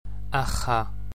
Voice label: broad ch, gaelic